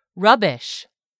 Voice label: "RUBBISH", english; 3000 words

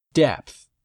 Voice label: "depth", English; 3000 words

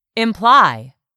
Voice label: "imply", English; 3000 words

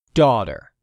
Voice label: "DAUGHTER", english; 3000 words

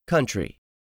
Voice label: "COUNTRY", English; 3000 words

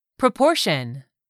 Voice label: "PROPORTION", English; 3000 words

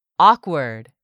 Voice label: "awkward", english; 3000 words

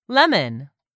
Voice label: "lemon", English; 3000 words

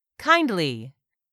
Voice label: "kindly", English; 3000 words

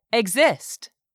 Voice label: "EXIST", english; 3000 words

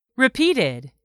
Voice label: "repeated", English; 3000 words

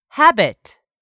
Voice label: "HABIT", english; 3000 words

